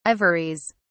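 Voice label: "ivories", British English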